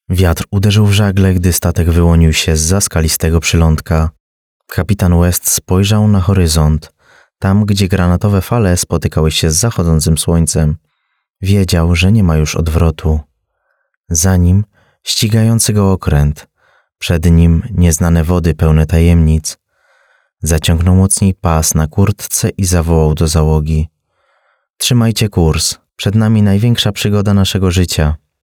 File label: audiobook